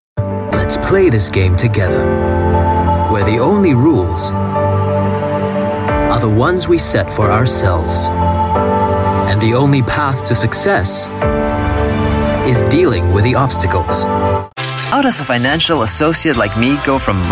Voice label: Asian English commercial reel